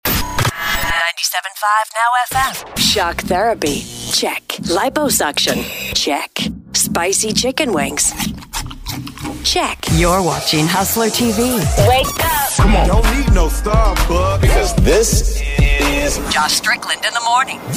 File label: Imaging